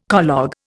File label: "collogue", Indian